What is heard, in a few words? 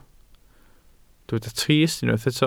"trist", Welsh